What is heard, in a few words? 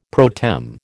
"pro tem", American